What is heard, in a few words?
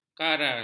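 "karal", Malay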